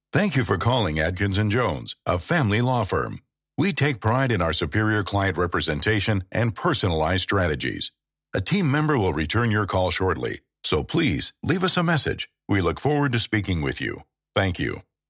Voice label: Phone Professional Greeting